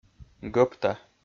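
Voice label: "гӧпта", Komi-Zyrian, male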